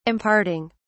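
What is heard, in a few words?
"imparting", British English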